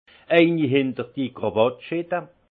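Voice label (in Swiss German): "krawatt", alsace Haut-Rhin; vin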